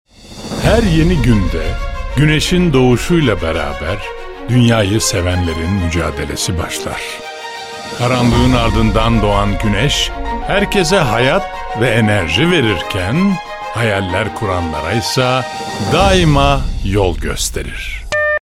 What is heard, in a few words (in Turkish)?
reklam otoriter karizmatik; dbeenergy